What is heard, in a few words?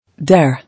"Dare", English